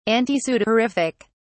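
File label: "antisudorific", British English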